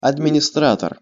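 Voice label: "administrator", Russian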